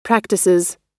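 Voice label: "practises", American English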